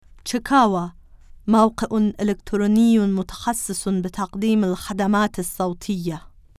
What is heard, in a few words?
arabic